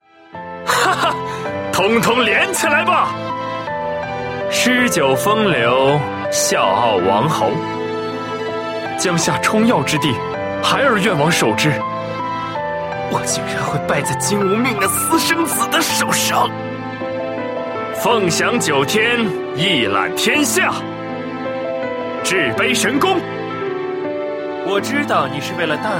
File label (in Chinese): qingnian